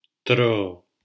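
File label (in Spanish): "tro" Spanish syllable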